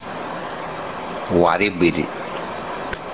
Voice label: "waribir" canoe; Fishing Lore of Tobi